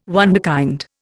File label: "wunderkind", Indian